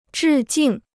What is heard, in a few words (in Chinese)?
"致敬", Chinese